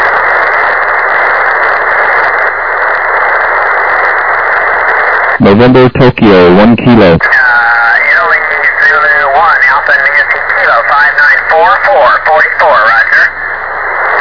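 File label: upper sideband 28MHz; ARRL10M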